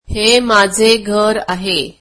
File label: marathi this is my house